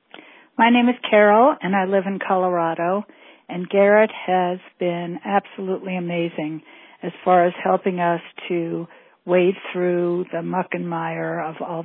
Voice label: Voice Testimonial